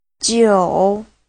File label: Jiu tiou Chinese